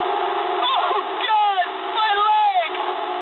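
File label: damaged pilot mood medium, speech English; War Thunder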